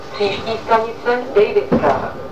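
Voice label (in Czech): příští, Vlaková hlášení, Pražské metro; Příští stanice Dejvická.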